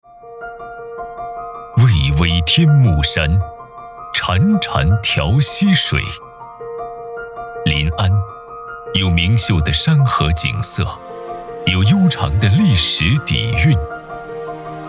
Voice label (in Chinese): 专题汇报类; 杭州市临安区卫健委